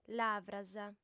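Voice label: "lavras", female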